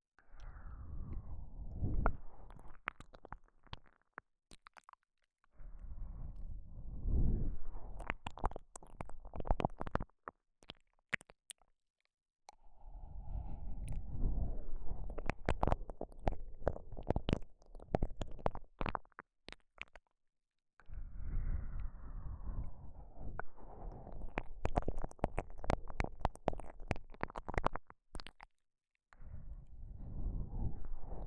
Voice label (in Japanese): KU100 左耳 10分に1回好き, ASMR